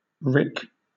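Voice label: "rick", British English; pronunciations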